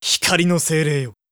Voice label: voice magic holy